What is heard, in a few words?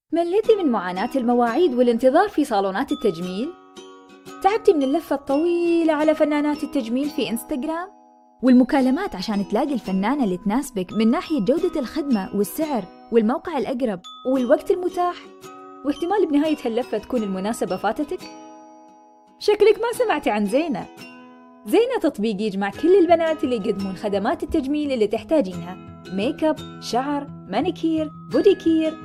Arabic F AD; voice over